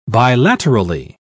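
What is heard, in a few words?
"bilaterally", British English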